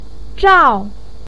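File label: "zhao4", Mandarin Chinese pinyin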